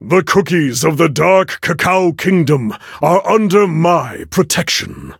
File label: levelup, en; Cookie Run